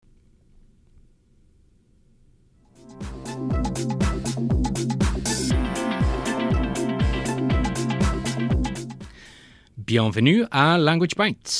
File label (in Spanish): LOCUCION FRANCES